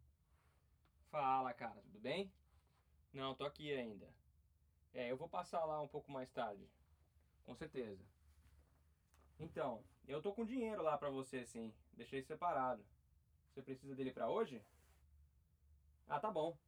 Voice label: Male Single Voice Distractor binaural